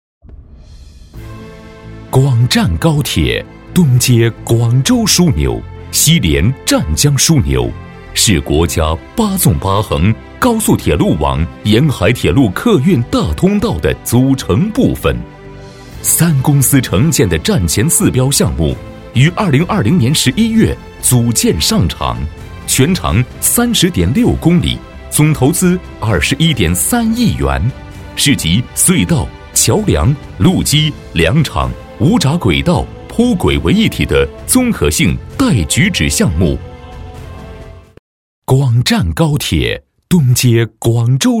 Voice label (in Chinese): 男 宣传; 广湛高铁